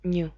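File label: "nnu", Spanish; klettres; tdeedu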